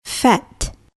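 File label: "fat", English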